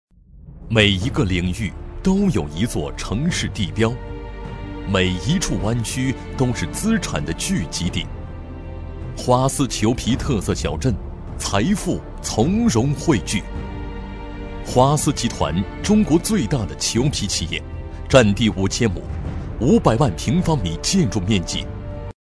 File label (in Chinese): 男 专题 宣传片; 华斯集团